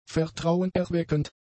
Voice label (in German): "vertrauenerweckend", German; adjectives